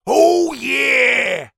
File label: human male shout oh yeah happy celebrate; zapsplat